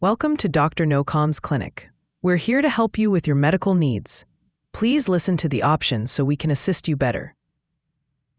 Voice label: question english